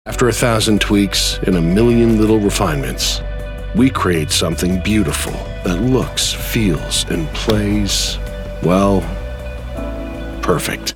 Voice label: US English

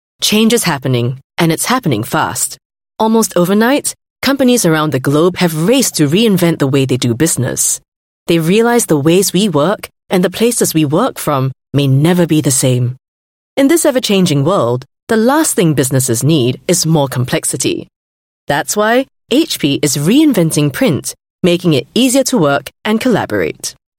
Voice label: Asian English change

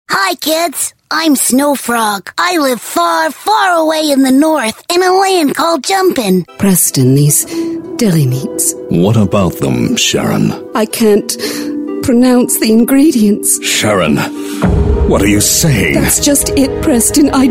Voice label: US English Character Reel

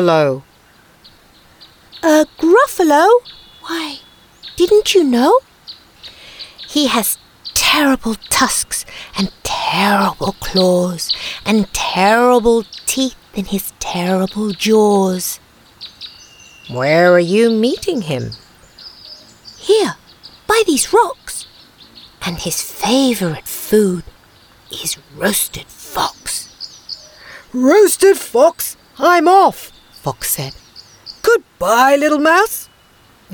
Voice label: UK English with Soundtracks; Gruffalo

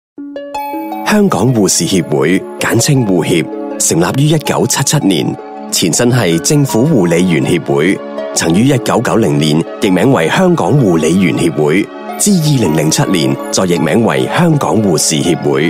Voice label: Cantonese Male

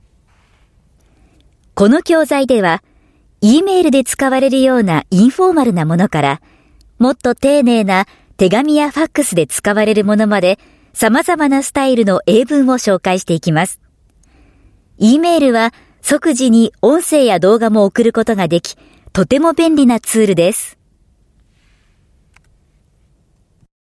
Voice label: Japanese e-learning

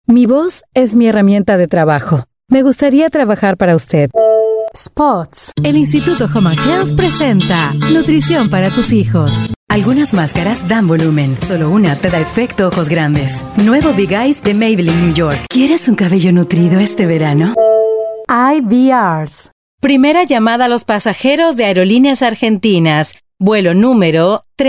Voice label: Latin Spanish Generic, Female

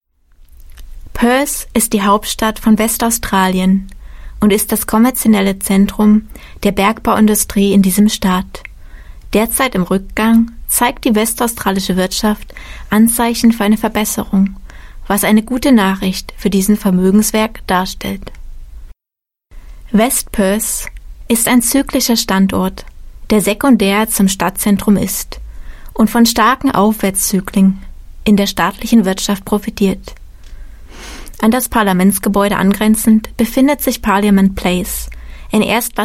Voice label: German Female; Parliament Place

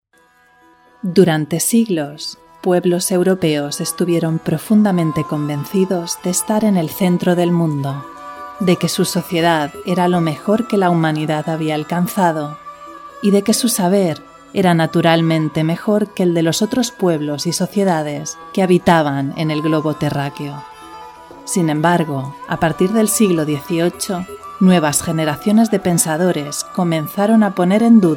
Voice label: Spanish Castilian NARRATOR EDUCATIONAL ELEGANT

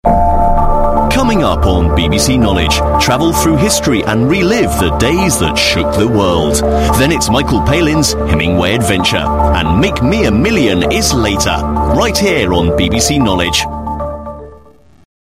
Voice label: UK English; BBC Knowledge

